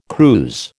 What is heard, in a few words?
"cruse", American